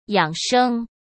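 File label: "养生", Mandarin Chinese; chinese flashcards; hsk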